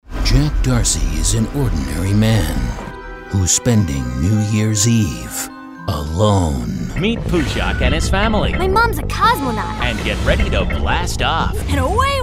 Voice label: Trailers Voice Overs